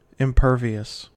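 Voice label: "impervious", US English; pronunciations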